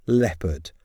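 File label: "leopard", English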